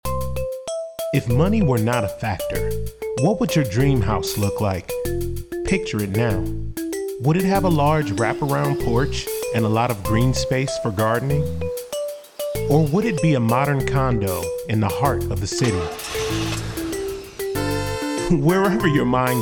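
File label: Voice Over REAL ESTATE MORTGAGE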